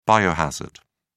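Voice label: "biohazard", British English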